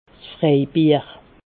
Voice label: "freibier", Alsace

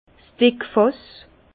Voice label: "dickfass", Bas Rhin; vin; barrique 225 l

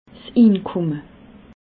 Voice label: "inkumme", alsace

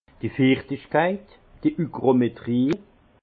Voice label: "fichtigkeit", alsace; hygrométrie; vin

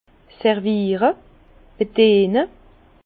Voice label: "serviere", Bas Rhin; vin; servir

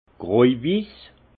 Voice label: "grojwiss", alsace; vin; blanc cassé

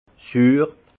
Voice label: "suur", alsace; vin; aigre

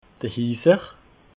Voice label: "hiiser", alsace

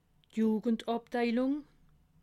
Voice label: "jugendabteilung", alsace

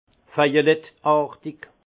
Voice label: "veilleletartig", alsace; vin; violacé

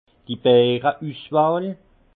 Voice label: "beere", alsace; vin; grains nobles

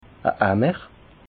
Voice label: "amer", alsace

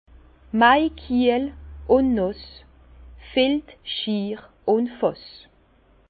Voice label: "maikiel", Bas Rhin; vin; mois de mai humide et frais

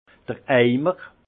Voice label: "eimer", alsace; vin; crachoir

